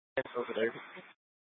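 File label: police department dispatch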